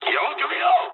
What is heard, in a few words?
player friendly fire mood med, speech jp; War Thunder